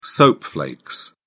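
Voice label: "soap flakes", British English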